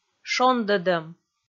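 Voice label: "шондӧдӧм", Komi, female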